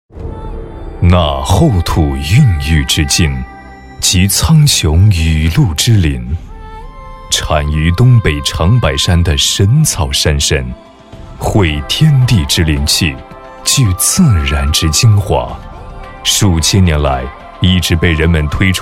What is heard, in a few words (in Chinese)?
男 专题 大气 历史; 神草山参